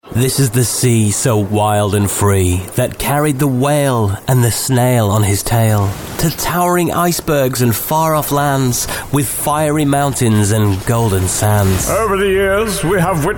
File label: multivoice talent demo